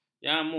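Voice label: "jamu", Malay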